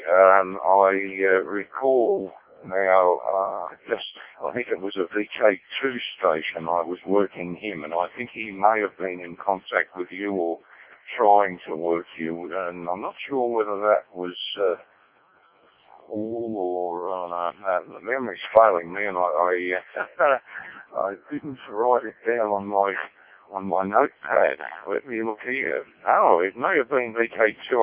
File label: decoded speech, parrot